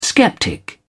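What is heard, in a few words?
"skeptic", British English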